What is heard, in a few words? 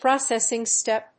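"processing step", English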